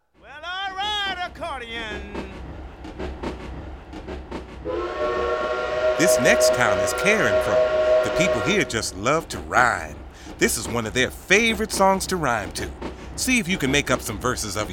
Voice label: Narration